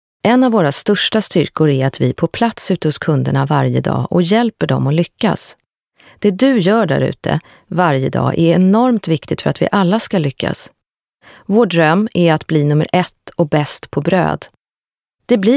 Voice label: informative